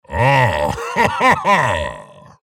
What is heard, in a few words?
chatter positive; scalebearer; frag